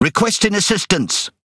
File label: Pirate Speech Quick Commands Need Support; World Of Warships